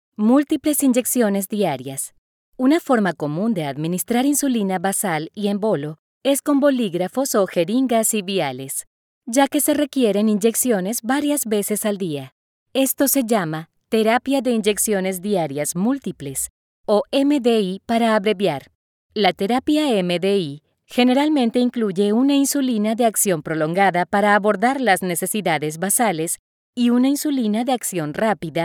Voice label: spanish latin american medical narration